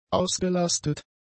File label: "ausgelastet", German; adjectives